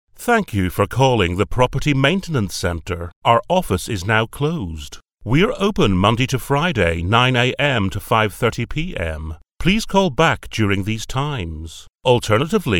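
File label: english british male; dictor; dictor-sounds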